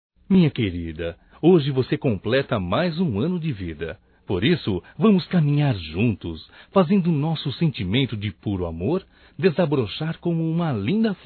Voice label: ANIV Romântico (M)

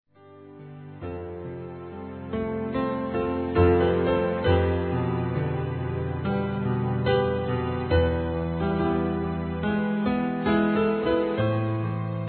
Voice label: POR NÃO ACREDITAR EM VOCÊ F, Telemensagens; Desculpas; fem; Telemensagens 2017

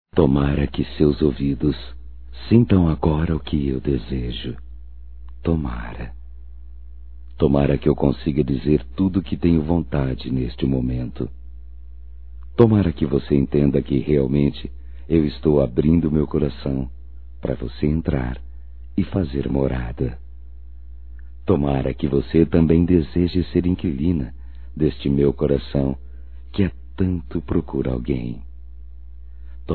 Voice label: CONQUISTA, Telemensagens, Românticas, masc

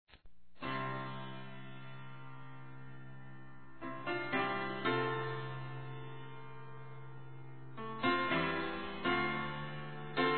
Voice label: ANIV. MÃE P FILHA F

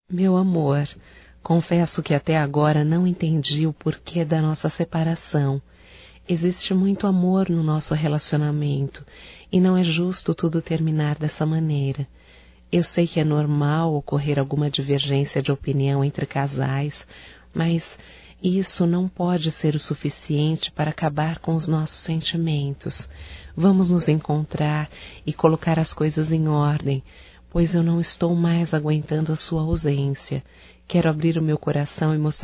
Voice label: RECONCILIAÇAO (F); Românticas

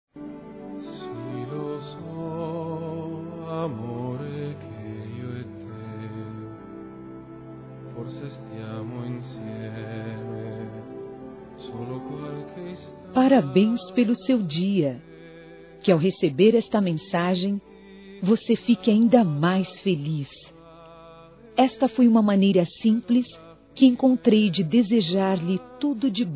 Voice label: NEUTRO (F)

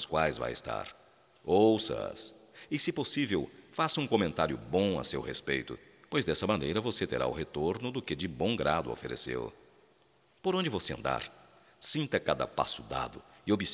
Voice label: BOA NOITE, Só Voz, masc; Telemensagens; Telemensagens 2017

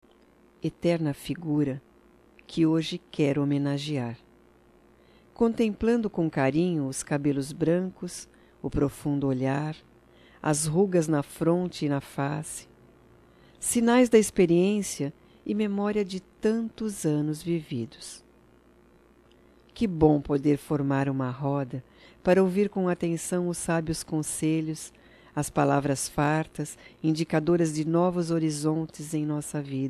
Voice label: DIA DA AVÓ - F; Datas Mistas; Telemensagens 2017

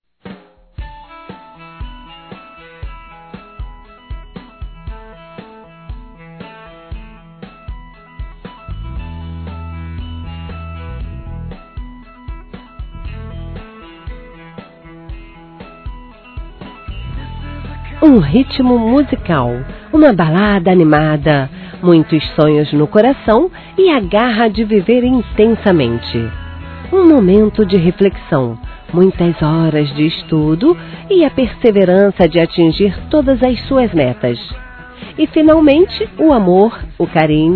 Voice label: Filho (a) Adolescente Feminino; Aniversário Infantil; Telemensagens

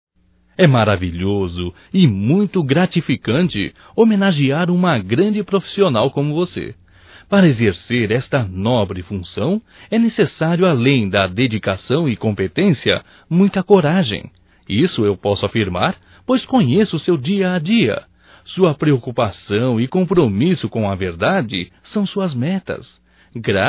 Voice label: Dia Reporter Feminino Masculino, Telemensagens, Só Voz; DATAS COMEMORATIVAS

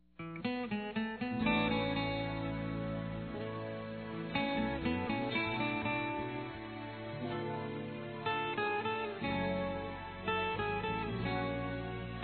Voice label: CIUME EXAGERADO, fem; Ciúmes; Telemensagens

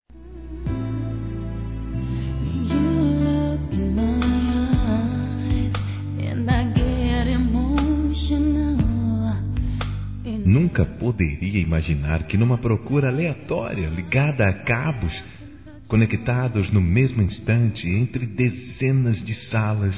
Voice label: Namoro Virtual Masculino; Telemensagens; Telemensagens 2017

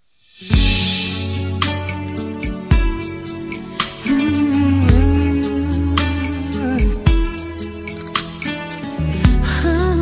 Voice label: ROM VECE E MUITO ESPECIAL FEM

